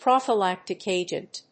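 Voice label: "prophylactic agent", English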